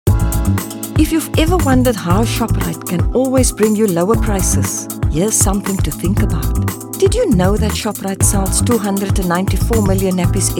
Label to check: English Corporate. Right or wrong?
Right